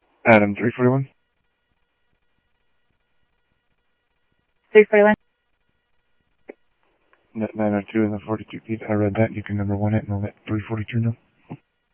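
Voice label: Sheriff West County, scanner audio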